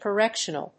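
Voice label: "correctional", English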